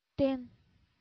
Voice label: "ten", English